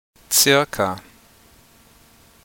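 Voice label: pronunciation "circa", German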